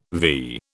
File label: "vee", British